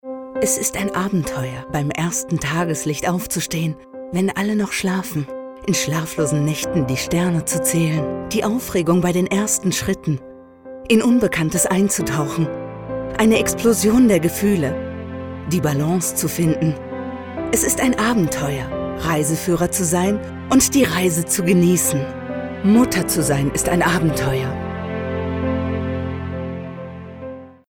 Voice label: female german uplifting comercial